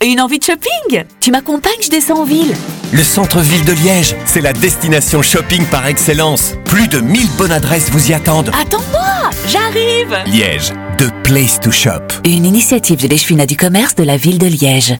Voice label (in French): Spot radio